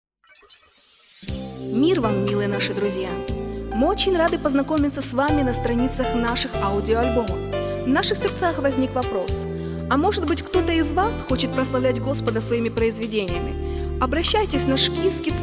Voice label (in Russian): Реклама